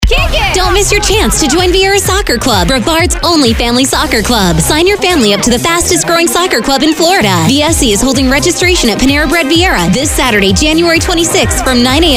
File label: spring commercial